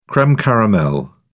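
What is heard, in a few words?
"creme caramel", British English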